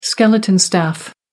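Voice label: "skeleton crew", British English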